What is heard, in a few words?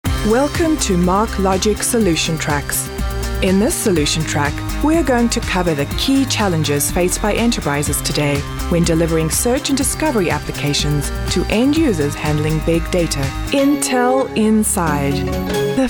Narration